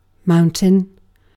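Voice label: "mountain", British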